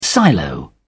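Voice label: "silo", British English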